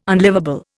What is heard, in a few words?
"unlivable", Indian